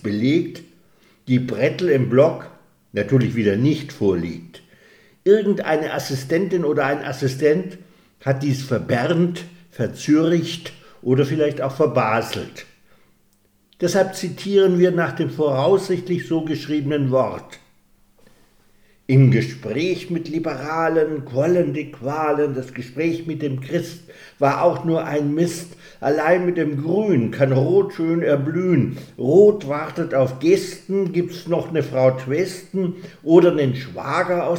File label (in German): Poetry Slam; Koalitionärer